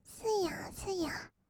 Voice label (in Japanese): "すやすや", kawaii voice; 1200 kawaii voice!!; 色々なワンショット